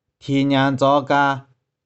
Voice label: "thie1niang3tsau1ka3", 玉環

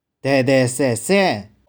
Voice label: "dae2dae2sae2sae6", 玉環

